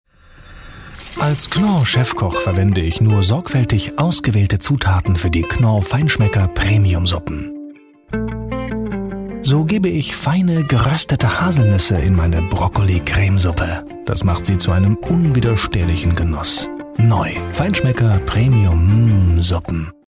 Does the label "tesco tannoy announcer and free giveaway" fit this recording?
No